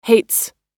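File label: "hates", American English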